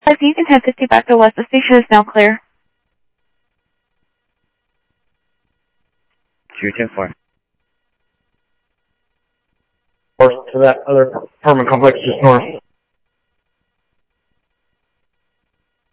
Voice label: Info Sheriff Common, scanner audio trunk; MCSO - Info Sheriff - Common; ThompsonPeakScot